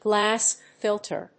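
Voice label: "GLASS FILTER", English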